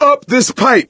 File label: misc directional, coach, survivor voice